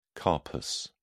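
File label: "carpus", English, British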